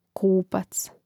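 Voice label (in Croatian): "kupac", Croatian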